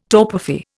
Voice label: "torpefy", Indian